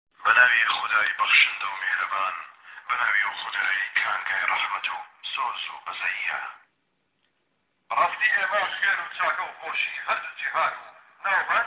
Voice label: Kurdish